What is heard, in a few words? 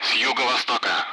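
Speech, Russian; Allied Flight; DCS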